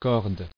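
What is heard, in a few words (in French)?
"corde" French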